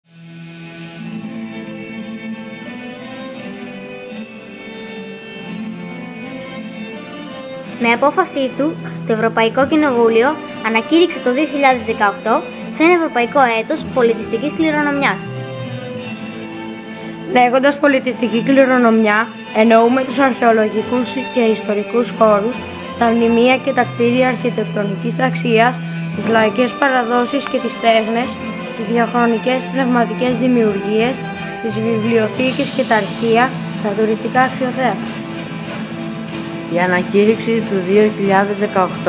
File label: spot; 45 dim patras